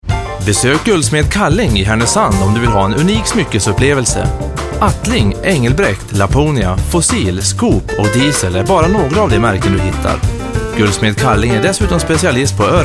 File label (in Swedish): radioreklam; Reklamprat 1; Cleen Sound